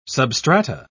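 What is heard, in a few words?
"substrata", British English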